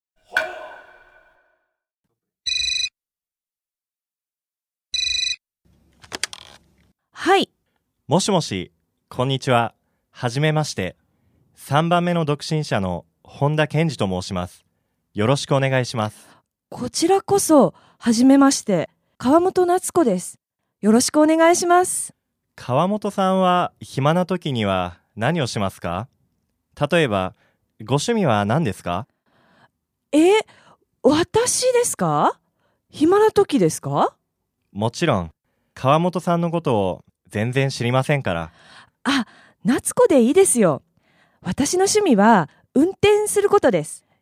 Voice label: dialog; JapanesePod101; Beginner Season 1